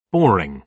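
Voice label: "boring", British English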